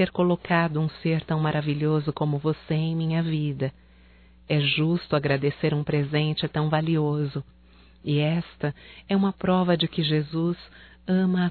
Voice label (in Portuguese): Irmã Feminino, Telemensagens, Natal; Telemensagens 2017; Natal & Ano Novo